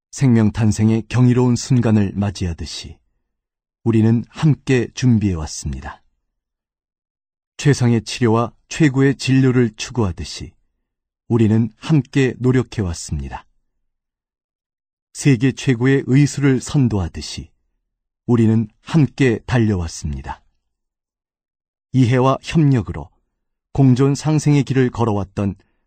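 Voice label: Korean, male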